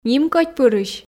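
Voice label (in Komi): нимкодьпырысь, Komi, female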